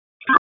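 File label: Raleigh Police North District Tactical; wake; p25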